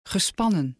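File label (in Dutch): "gespannen", Dutch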